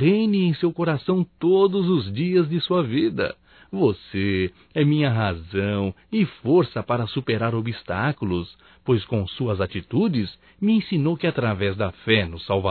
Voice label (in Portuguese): ANO NOVO ROMANTICO (M); Natal e ano novo; Telemensagens 2017